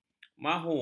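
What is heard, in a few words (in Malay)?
"mahu", Malay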